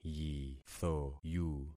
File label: "yithoyu", concat syllables; Affixing experiment